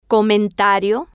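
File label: "comentario", Spanish, female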